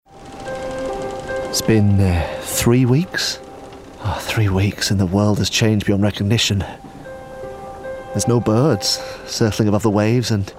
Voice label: Gaming Diary Northern

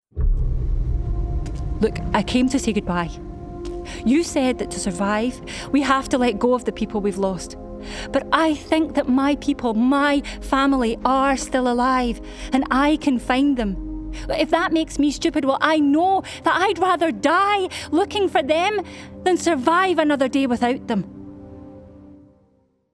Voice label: Gaming Everywoman

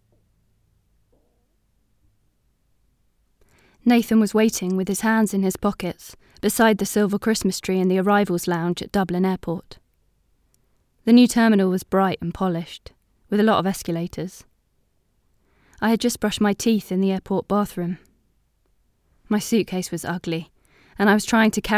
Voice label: Audiobook; Mr Salary by Sally Rooney (London)

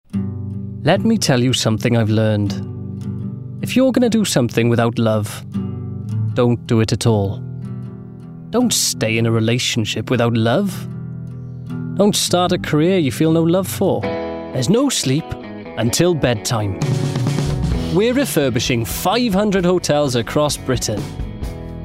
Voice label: Commercial Voicereel

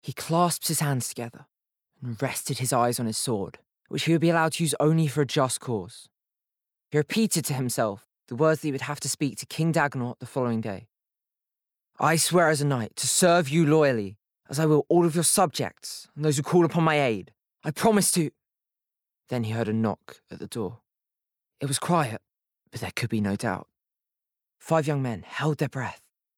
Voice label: Audiobook

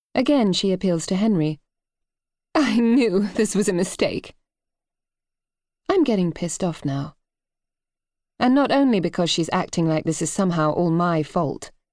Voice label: Bookread; Believe Me

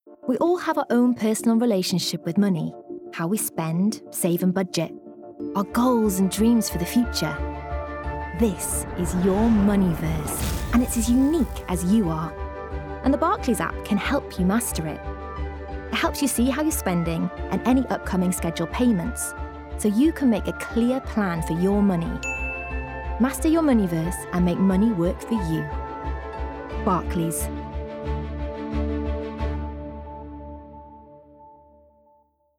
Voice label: Neutral; Barclays

